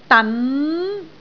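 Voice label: "dan2", Cantonese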